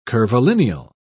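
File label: "curvilineal", British English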